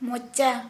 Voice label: Santali Mouth

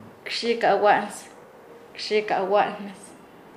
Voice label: "bromear", Nasa Yuwe; paez

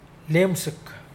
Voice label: showoff, Mokilese

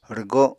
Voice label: "rwgo", Apatani

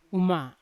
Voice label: "uma" sun, Yawa

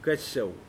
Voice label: "rain", Pingelapese

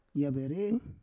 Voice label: "yavere", Roon

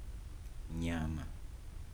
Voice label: "animal(s)", Kibembe

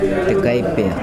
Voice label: "gaibea", Nukuoro; crab; Nukuoro Talking Dictionary; A searchable Nukuoro-English lexicon.

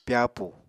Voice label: "pyàpu", apatani

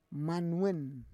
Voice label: "manwen", Roon

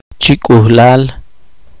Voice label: poqomchi; de par en par

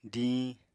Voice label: "diñ", Apatani

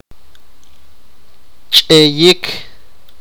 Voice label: sipakapense; hit

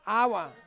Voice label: "awa", Ansus; village

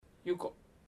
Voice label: Hello, chamacoco; Bahia Negra

